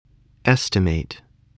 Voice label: "estimate", voice, english